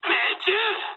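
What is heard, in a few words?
bomb success mood med, speech japanese; War Thunder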